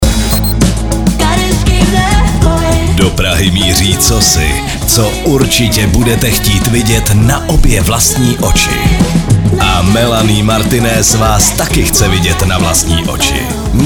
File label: promo; EVROPA 2